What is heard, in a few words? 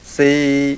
mid level modal, mpi